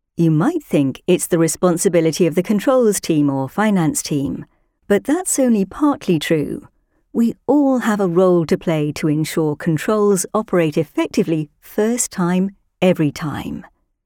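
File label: English UK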